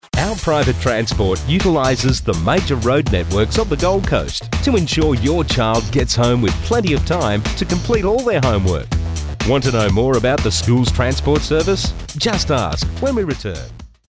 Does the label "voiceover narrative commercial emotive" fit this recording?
No